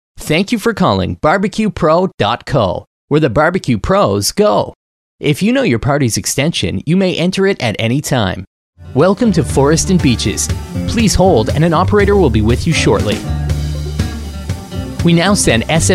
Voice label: english canadian male; dictor; dictor-sounds

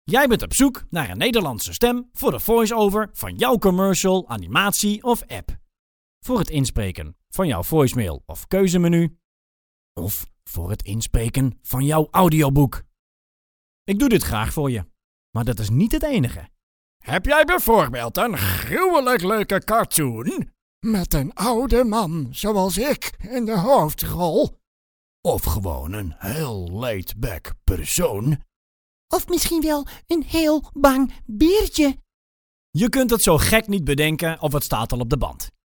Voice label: dutch male; dictor; dictor-sounds

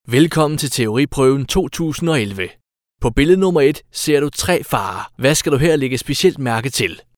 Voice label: danish male; dictor; dictor-sounds

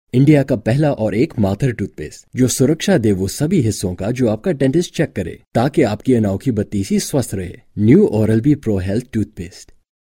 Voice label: hindi male

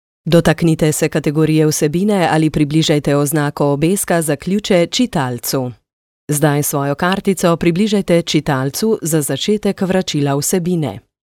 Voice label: slovenian female; dictor; dictor-sounds

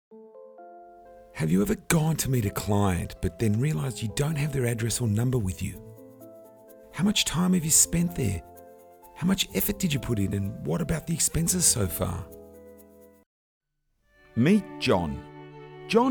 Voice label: english australian male; dictor; dictor-sounds

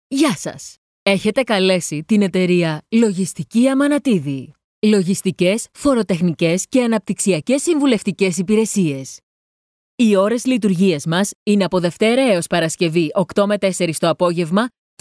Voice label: greek female; dictor; dictor-sounds

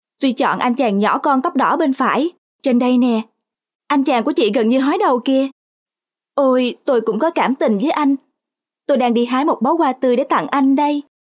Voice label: vietnamese female; dictor-sounds

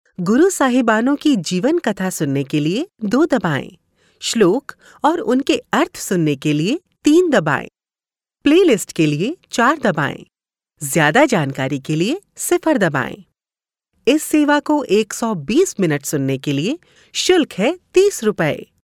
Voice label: hindi female

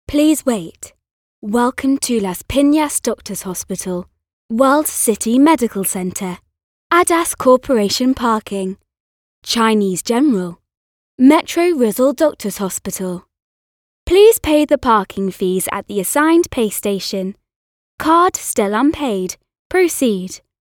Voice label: english british female parking machines; dictor; dictor-sounds

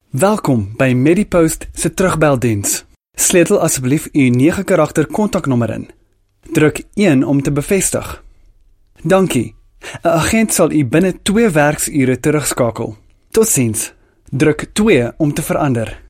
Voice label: africaans male

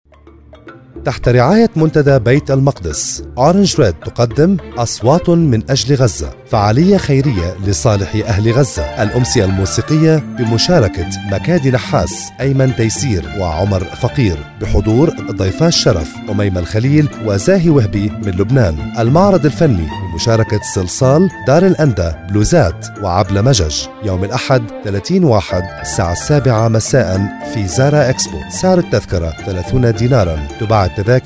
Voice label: arabic male

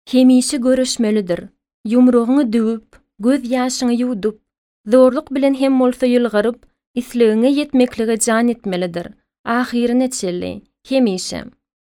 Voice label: turkmen female

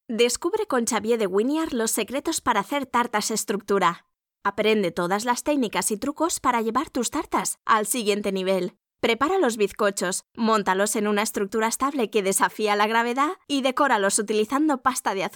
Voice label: spanish european female

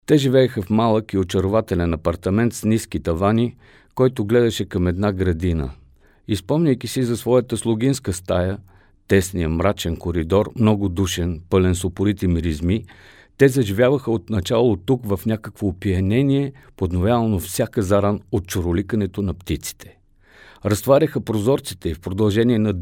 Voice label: bulgarian male; dictor; dictor-sounds

